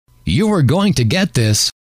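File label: you Are Going To Get This, male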